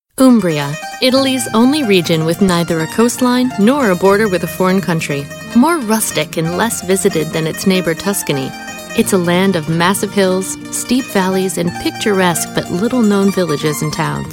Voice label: Travel Narration

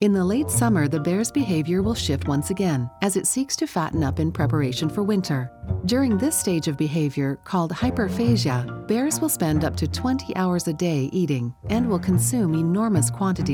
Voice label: eLearning and Corporate Training

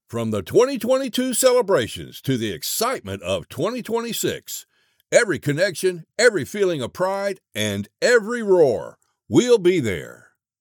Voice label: VO English read Hyped; World Cup VO Campaign

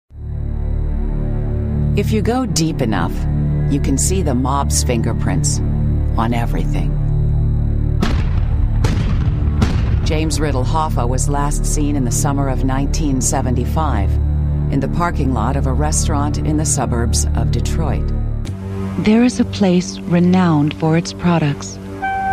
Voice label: Narration Documentary

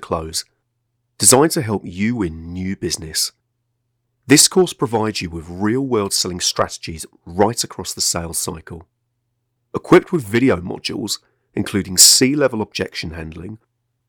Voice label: E-Learning

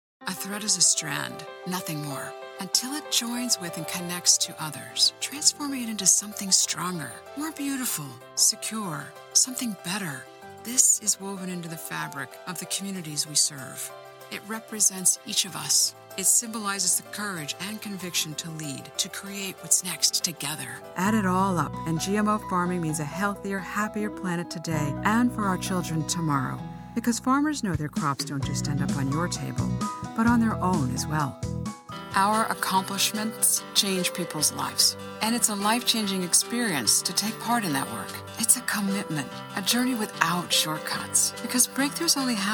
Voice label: Narration

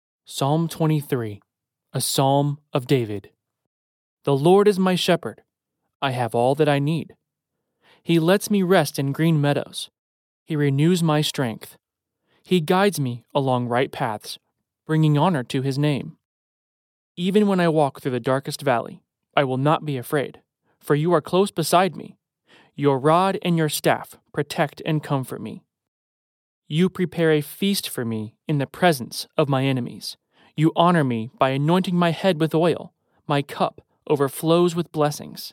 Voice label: Bible Reading

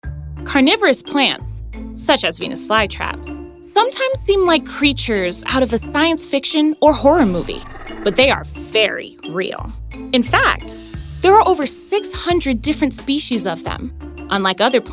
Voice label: Narration

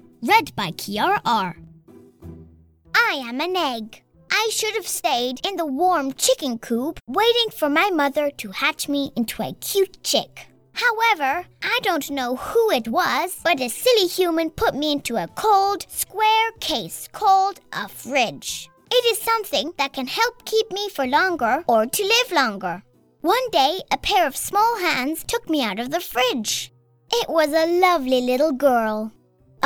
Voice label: Narrations Excerpts

